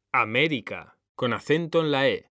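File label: "América", Spanish, male; mekanta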